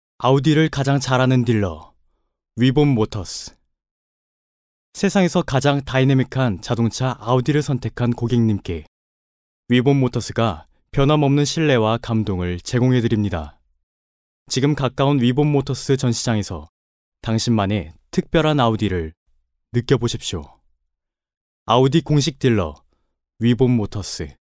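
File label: Korean, male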